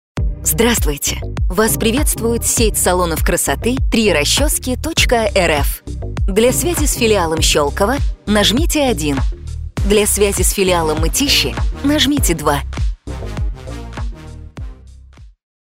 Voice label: Голосовое меню IVR салона красоты; ДИКТОРЫ ДЛЯ IVR

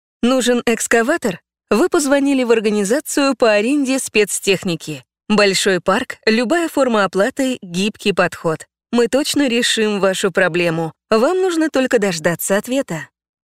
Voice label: Голосовой информатор аренда спецтехники; ДИКТОРЫ ДЛЯ IVR

